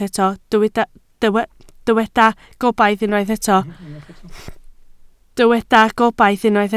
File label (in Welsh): "gobaith", Welsh